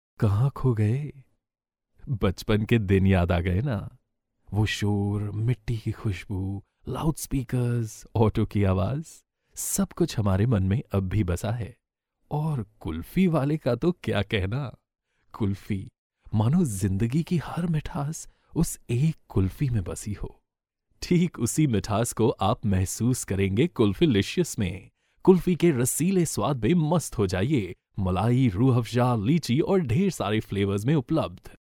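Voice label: Hinglish, India, male; voice over talent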